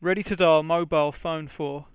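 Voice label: ready to dial mobile phone for, English, Voice Sounds; Samsung SGH-i310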